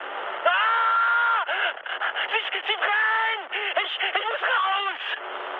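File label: German on fire mood high, speech; War Thunder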